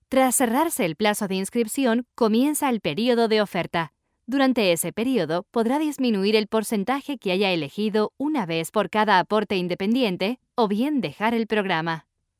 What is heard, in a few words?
latin spanish female latin spanish elearning